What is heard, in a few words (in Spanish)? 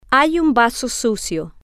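hay un vaso sucio, spanish, female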